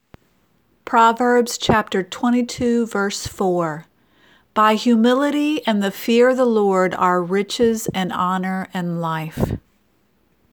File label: Childrens Bible Verse; Proverbs 22 4